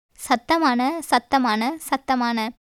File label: Tamil